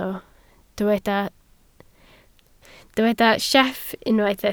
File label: "sieff", Welsh